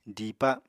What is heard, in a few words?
"diipa", Apatani